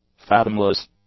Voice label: "fathomless", english